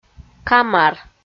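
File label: "камар", Komi, female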